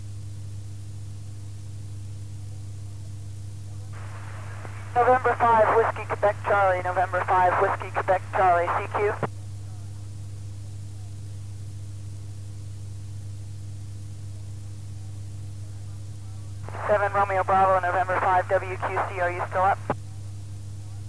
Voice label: calling cq; sts-45 kathryn n5yyv